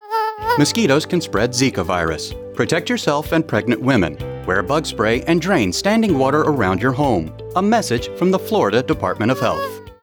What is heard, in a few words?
Zika radio ad; mosquitoes zika